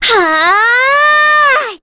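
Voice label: Vox Casting, korean; Kirze; kingsraid; voices heroes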